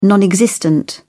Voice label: "non existent", en uk

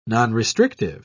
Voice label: "nonrestrictive", en uk

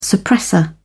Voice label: "suppressor", en uk

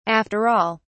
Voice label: "after all", uk

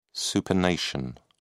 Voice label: "supination", en uk

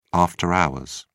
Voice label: "after hours", uk

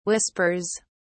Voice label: "whispers", uk

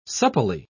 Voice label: "supplely", en uk

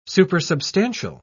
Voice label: "supersubstantial", en uk

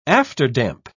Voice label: "afterdamp", uk

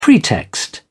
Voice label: pretext, uk

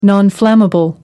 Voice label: "nonflammable", en uk

